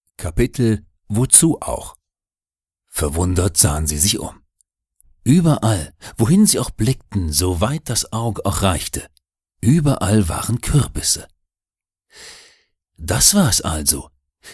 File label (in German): kinderhoerbuch; rumpelzopf